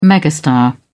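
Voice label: "megastar", British English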